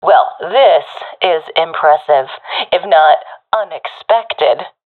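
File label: compliment, professional female; vo; Counter Strike Model Pack; CS:GO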